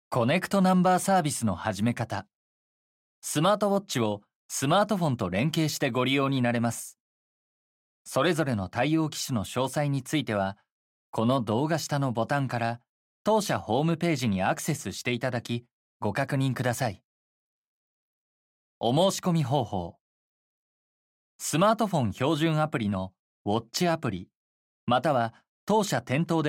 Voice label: male